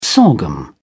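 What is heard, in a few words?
"sorghum", British English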